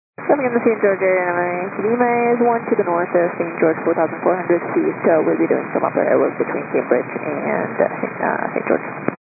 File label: 122 925MHz, scanner radio